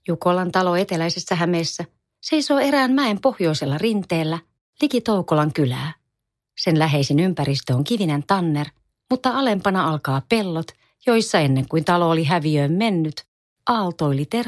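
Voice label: Finnish, Audiobook, female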